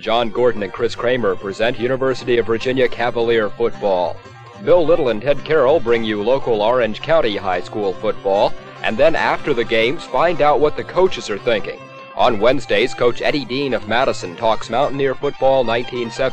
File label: fall sports promo; WJMA